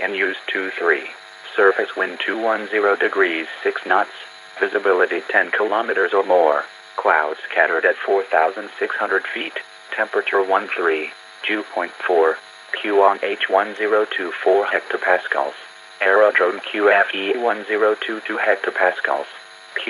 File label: ATIS Cambridge